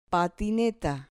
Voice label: "patineta", Spanish